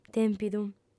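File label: "tèmpidu", female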